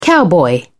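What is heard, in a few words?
"cowboy", British English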